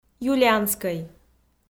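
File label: "юлианскӧй", Komi, female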